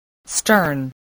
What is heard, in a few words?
"stern", voice